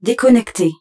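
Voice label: French - Disengaged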